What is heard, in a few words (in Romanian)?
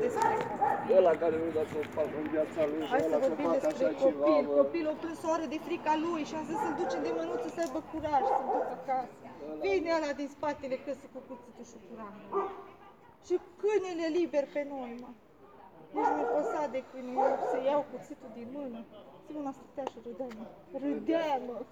declaratie femeie